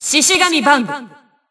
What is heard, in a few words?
System Voice; BBCP; Bullet